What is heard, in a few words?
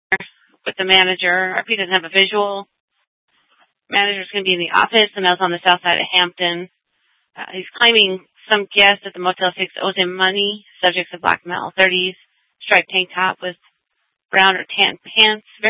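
police department Dispatch, scanner; Mesa PD; 1 Fiesta Dispatch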